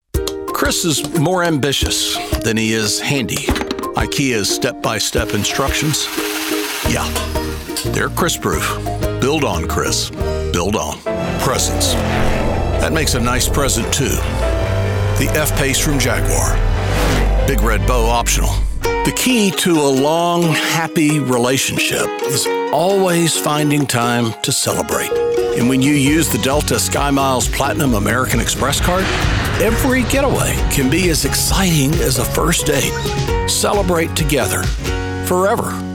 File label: Commercial